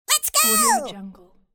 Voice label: cartoon "let's go"